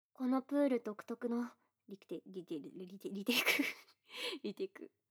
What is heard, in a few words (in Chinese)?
NG 重錄吧重錄